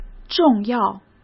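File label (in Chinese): "重要", Mandarin Chinese; chinese flashcards; hsk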